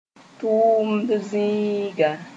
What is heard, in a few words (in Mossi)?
"tʋʋmd zĩiga", moore; workshop